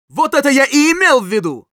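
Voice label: positive vocalization, Russian; Scout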